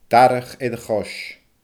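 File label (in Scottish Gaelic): "darach air a chois", Scottish Gaelic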